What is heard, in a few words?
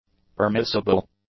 "permissible", english; adjectives